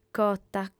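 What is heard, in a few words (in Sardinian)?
"cota", female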